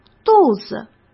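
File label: "肚子", Mandarin Chinese; chinese flashcards; hsk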